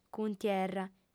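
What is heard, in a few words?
"cuntierra", female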